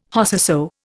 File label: "Whosesoe", Indian